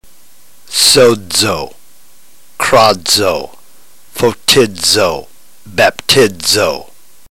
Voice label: drill, ntgreek